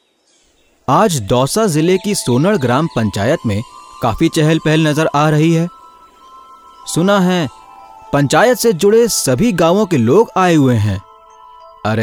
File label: Documentry Hindi